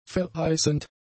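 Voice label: "verheißend", German; adjectives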